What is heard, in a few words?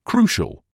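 "crucial", British English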